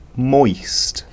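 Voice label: "moist", British English; pronunciations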